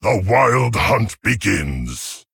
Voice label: Male Tauren Start

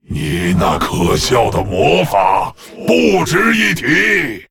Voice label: Male Lich; TRIGGER Icicle; zh

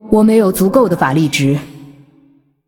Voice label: Female Ghost ERROR NEED MANA, zh

